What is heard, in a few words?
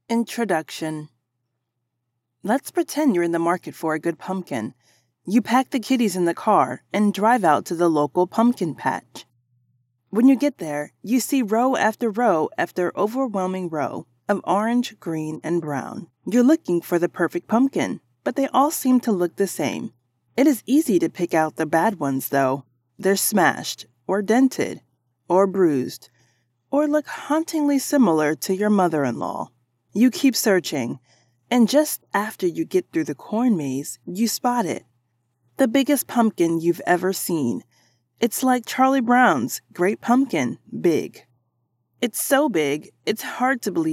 NARRATION; Voice over; THE PUMPKIN PLAN